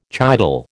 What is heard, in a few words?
"chital", American